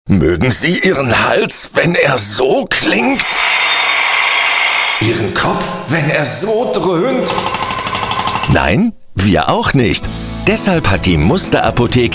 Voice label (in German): Gesundheit Apotheke funny